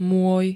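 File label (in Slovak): "moj", slovenské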